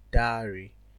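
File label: "dowry", Nigerian Pidgin, male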